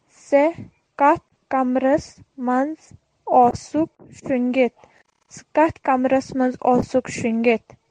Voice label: Kashmiri; In which room did you sleep?; Che kath kamrus manj osukh shon

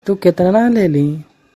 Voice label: Tu ketna lelhin?, Magahi

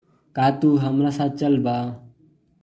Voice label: Ka tu hamra saath chalba?, Bhojpuri

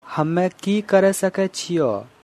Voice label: "Hummah ki karah sake chiyoh", Angika language; What can I do?

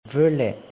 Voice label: "Verla", Angami; Come (You come)

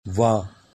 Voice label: "Wa", Haryanvi; She

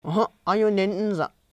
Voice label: Uhh huh ayo nene zei da., Lotha

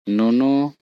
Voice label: "Nunu", Korku; Drink

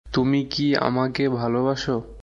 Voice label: Bengali, Bangladeshi Accent; Do you love me?; Tumi ki amake bhalobaso?

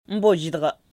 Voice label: Umbo zai daga., Lotha; He has come.

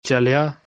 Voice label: "Chalya", Dogri; Walked

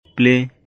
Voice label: "Plie", Khasi

